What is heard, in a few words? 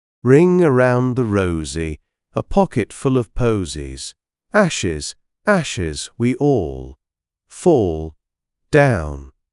male brit; Ring Around the Rosie